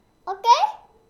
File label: Ok, Girl 2 Years Old